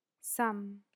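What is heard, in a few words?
"some", English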